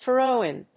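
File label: "throw-in", English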